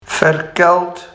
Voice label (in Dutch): "verkeld", Barghs; dialect